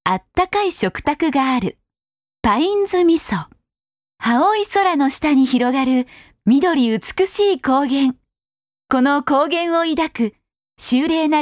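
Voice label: Japanese, female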